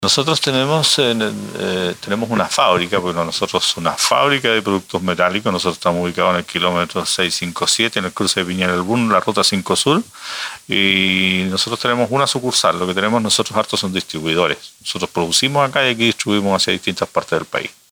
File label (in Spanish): Cuña